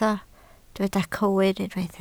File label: "cywir", Welsh